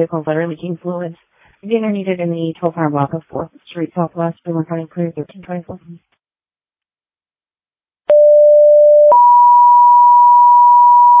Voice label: Waverly Fire, dispatch

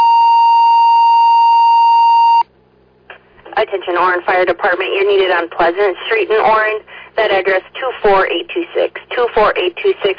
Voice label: Oran Fire, dispatch

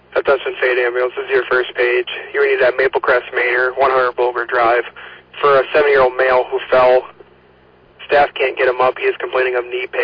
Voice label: Ambulance, dispatch

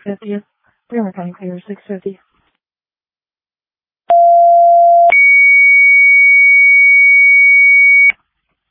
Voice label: Fire, dispatch

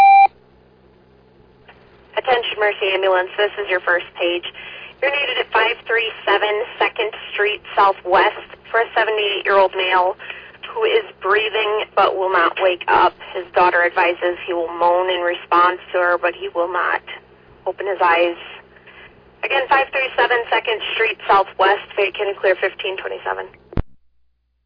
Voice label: Oelwein Ambulance, dispatch

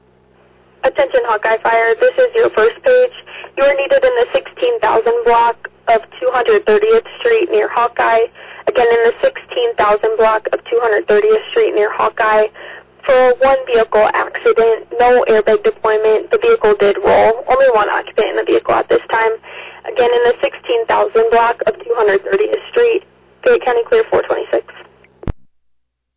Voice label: Fire, dispatch; Hawkeye

